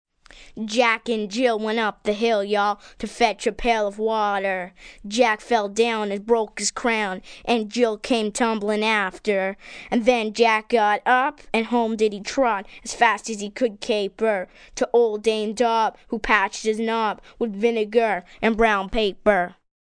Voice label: Hiphop, Rhyme, 1 boy, recited as rap, human voice, kid, child; Talking Sound Effects; 'Jack & Jill'